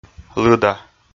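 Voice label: "лыда", Komi, male; kpv audio dict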